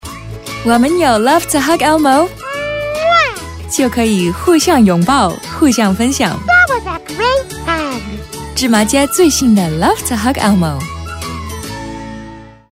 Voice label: Love To Hug Mandarin

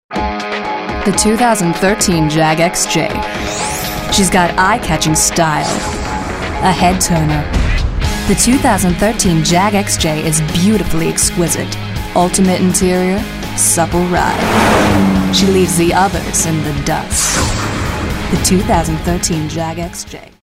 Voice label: Classy

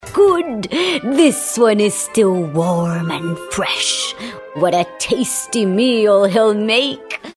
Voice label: witch

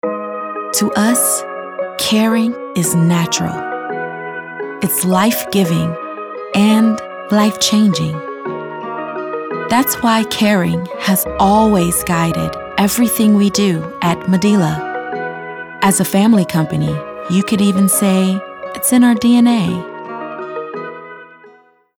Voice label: Caring

